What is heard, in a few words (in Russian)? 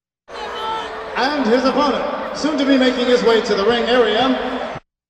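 объявление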